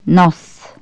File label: nose, Bulgarian Consonants